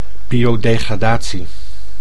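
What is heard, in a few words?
"biodegradatie", Dutch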